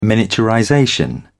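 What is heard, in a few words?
"miniaturization", British English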